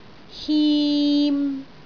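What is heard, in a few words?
"him3", Cantonese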